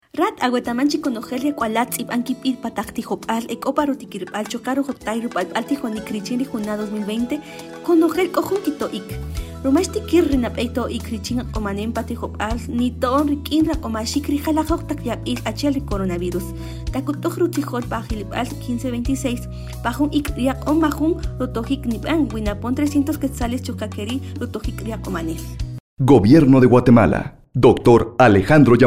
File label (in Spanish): Version Kakchikel